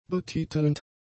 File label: "betitelnd", German; adjectives